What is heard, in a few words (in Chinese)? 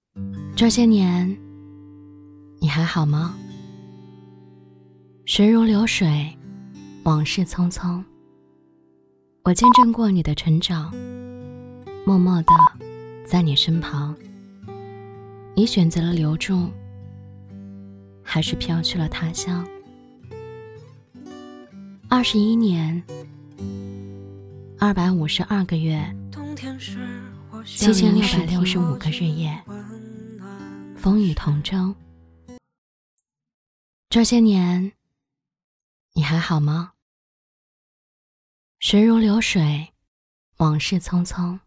女 情感旁白; 这些年; 你还好吗